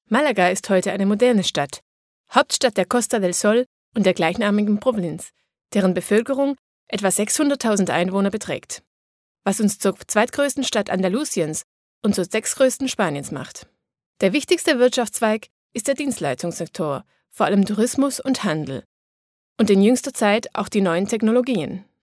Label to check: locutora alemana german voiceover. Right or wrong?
Right